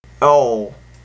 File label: "oil", Tigall